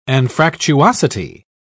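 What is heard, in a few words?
"anfractuosity", British English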